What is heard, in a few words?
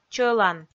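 "чӧлан", Komi, female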